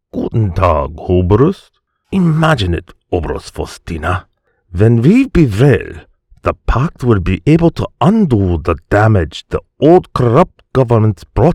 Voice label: German Accent English